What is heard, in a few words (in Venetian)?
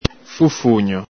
"fufugno", Veneto; ENG: cheat, swindle; ITA: imbroglio, intrigo